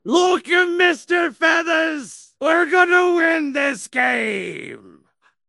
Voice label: happy; fertig classic games; blackwind